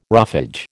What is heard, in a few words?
"roughage", American